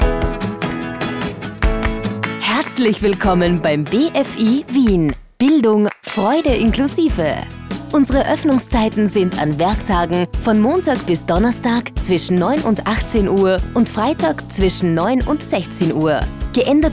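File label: Austrian, female, friendly; Fremdtake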